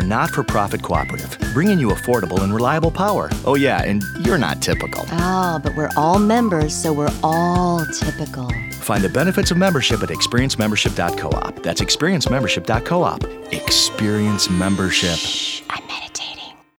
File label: Meditation